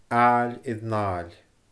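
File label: "aaill air n aaill", Scottish Gaelic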